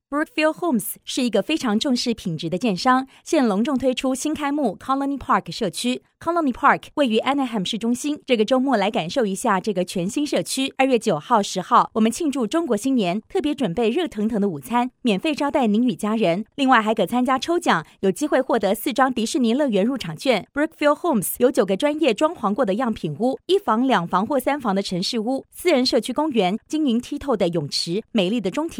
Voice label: Chn female